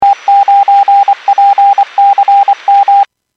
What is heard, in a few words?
Skywarn 146.67; Stevens Point